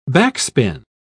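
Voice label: "backspin", British English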